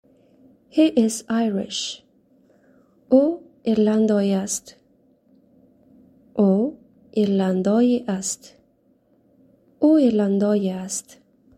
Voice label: He is Irish, tajik

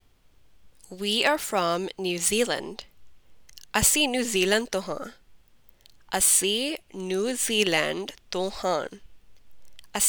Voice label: Punjabi We are from New Zealand

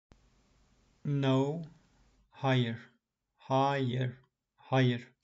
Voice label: "no", Turkish; the talking turkish phrasebook

